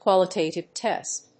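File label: "qualitative test", English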